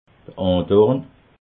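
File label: "andorn", Alsatian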